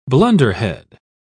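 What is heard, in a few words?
"blunderhead", British English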